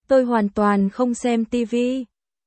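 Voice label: Vietnamese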